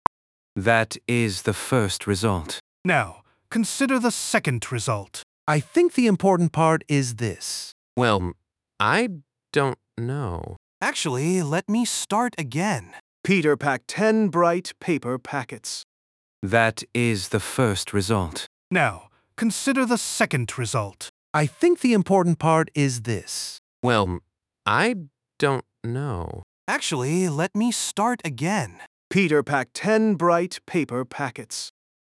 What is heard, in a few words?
speech accent